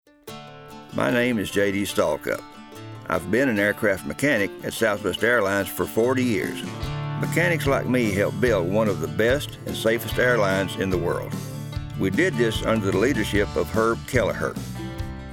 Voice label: Radio; Picket Radio AD